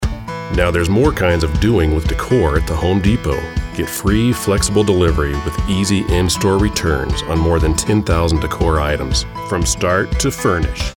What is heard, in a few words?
voice over; home depot